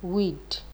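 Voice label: "weed", Nigerian Pidgin, female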